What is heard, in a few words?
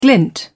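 "glint", British English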